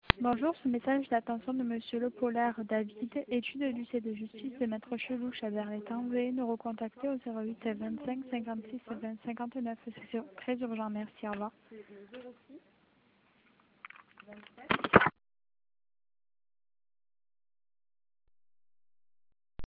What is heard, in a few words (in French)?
message telephoniques